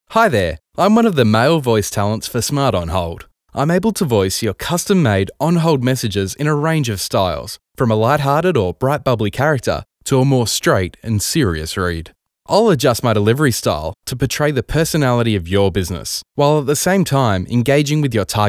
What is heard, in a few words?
male